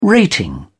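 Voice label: "rating", British English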